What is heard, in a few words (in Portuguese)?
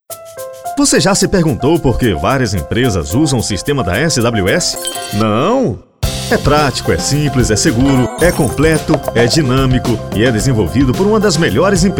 Spot Comercial; SWS Informática